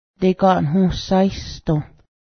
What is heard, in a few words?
cake, Cayuga; deganhǫhasíhsdǫh